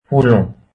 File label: flowers, hindi